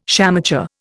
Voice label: "shamateur", Indian

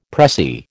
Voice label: "pressie", American

